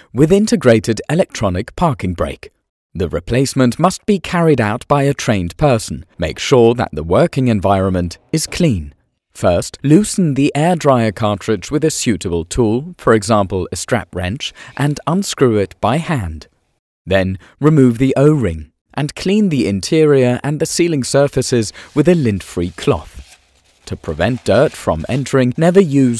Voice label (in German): ENGLISCH Explainer Video; Knorr-Bremse